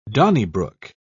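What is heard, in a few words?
"donnybrook", British English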